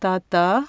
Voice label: "grandfather", Tamil consonants; JIPA